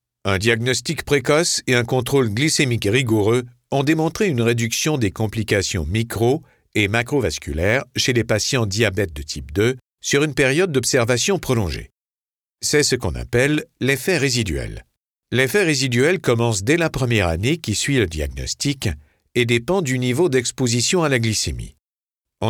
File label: french canadian narration